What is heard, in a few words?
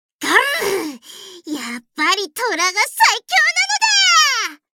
Voice victory